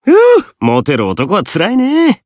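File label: encounter, Japanese; hardy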